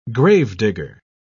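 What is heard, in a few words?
"gravedigger", British English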